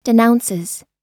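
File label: "denounces", US English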